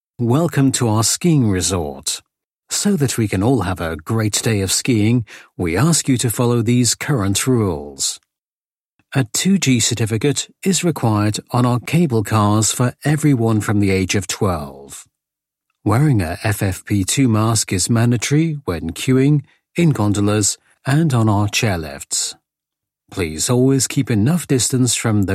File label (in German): ansage, englisch sprecher